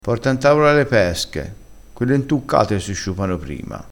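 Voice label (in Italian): "intuccato", dialettale crespinese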